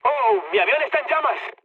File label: on fire, mood med, speech; War Thunder